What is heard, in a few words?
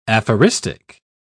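"aphoristic", British English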